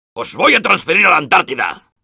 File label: Soldier jeers, es